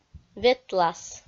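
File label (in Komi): "ветлас", Komi, female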